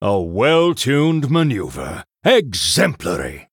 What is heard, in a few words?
Male Human Well Played